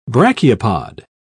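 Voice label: "brachiopod", English, British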